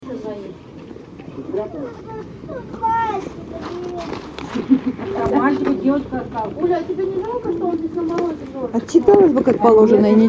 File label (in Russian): audio razgovor; sekretnye zapisi s byvshego rynka ooo debyut goda